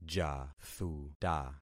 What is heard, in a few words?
"jathuta", concat syllables; Affixing experiment